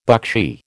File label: "buckshee", American